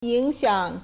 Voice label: "ying4 xiang3", Chinese